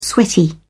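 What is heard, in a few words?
"sweaty", British English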